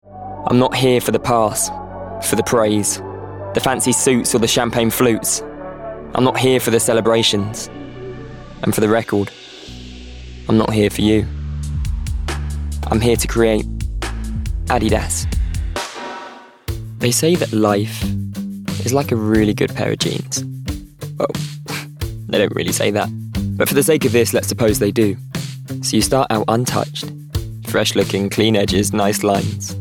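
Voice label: commercial reel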